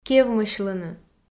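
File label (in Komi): "кевмысьлыны", Komi, female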